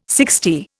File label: "sixty", Indian